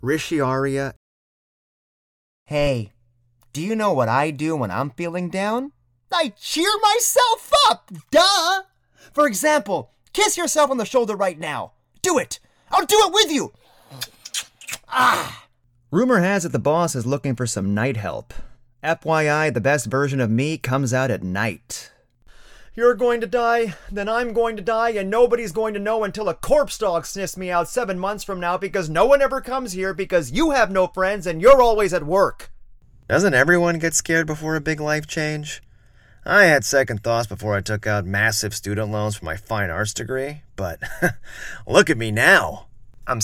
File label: animation; Innovative LA